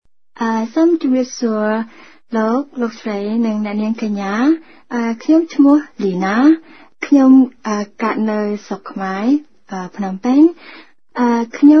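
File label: khmer female voice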